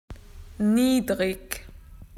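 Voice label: "low", Australian English; PictureDictionary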